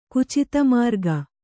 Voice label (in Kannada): "ಕುಚಿತಮಾರ್ಗ", Kannada